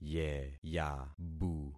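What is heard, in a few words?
"yeyabu", concatenated syllables; Affixing experiment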